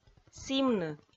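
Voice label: "сімны" Komi, female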